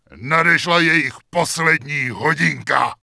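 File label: Grunt Yes Attack, Czech; wowpreklad; czwow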